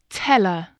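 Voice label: "teller", English word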